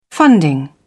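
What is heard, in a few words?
"funding", British English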